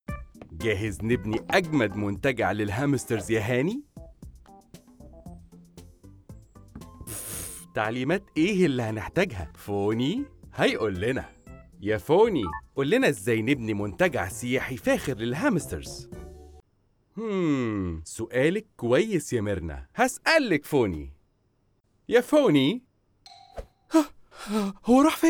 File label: Dubbing